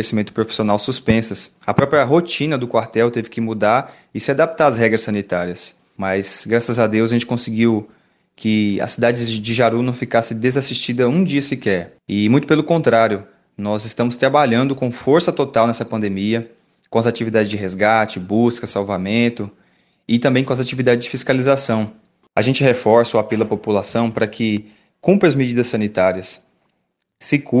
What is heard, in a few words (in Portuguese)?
Entrevista Corpo de Bombeiros